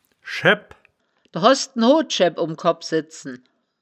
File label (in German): "schaebb", wittlicher; das wittlicher woerterbuch; woerterbuch vertonung